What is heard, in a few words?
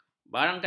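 "barangkali", Malay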